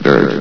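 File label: "dirt", announcer voice; Source Engine; bshift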